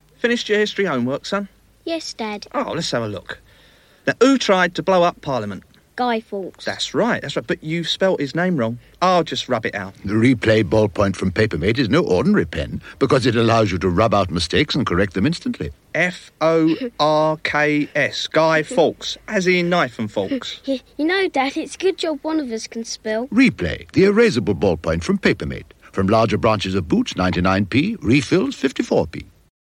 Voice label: Radio Hallam Advert; Papermate Replay Ballpoint